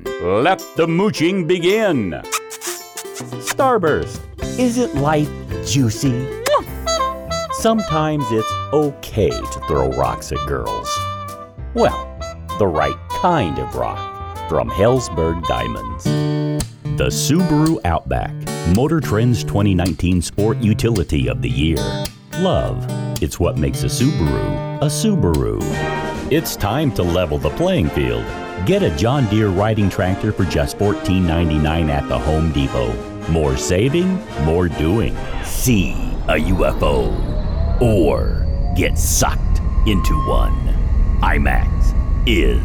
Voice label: Commercial Male; commercial voiceover